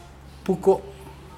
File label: basket, Mokilese